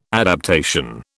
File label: "adaptation", British